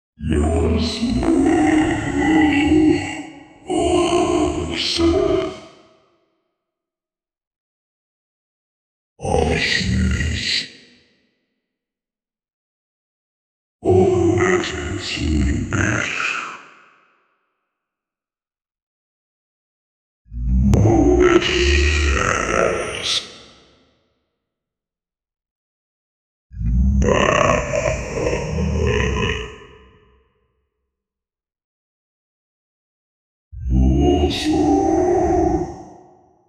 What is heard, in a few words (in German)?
demonen die mit tiefer st, demon